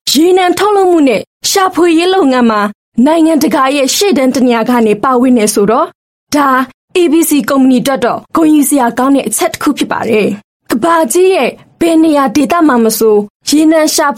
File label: Burmese F Marketing